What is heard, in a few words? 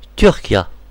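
"turkey", Breton; gcompris; geography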